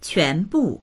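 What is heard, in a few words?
"quan2bu4", Mandarin Chinese; quánbù; 全部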